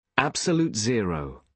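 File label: "absolute zero", British English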